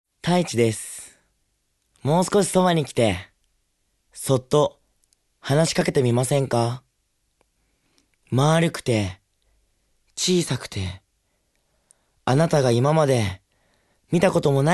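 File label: Narration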